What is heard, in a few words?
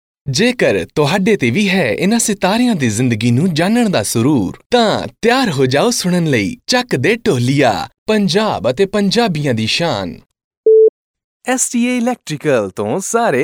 hindi